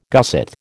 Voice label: "gusset", American

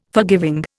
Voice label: "forgiving", Indian

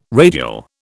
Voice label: "radial", British

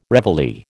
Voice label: "reveille", American

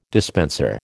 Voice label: "dispenser", American

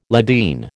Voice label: "Ladin", American

